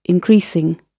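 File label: "increasing", British English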